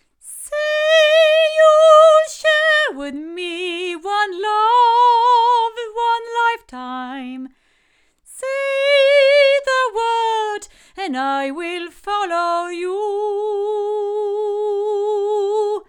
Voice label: ADK SC-2 female, microphones

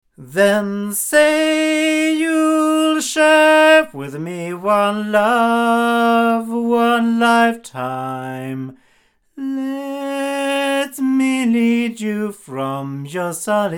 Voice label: omni male; TC1; GoldenAge

